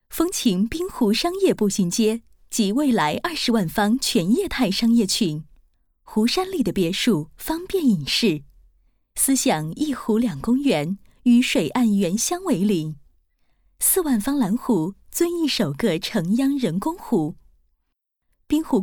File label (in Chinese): 广告 女; 保利女51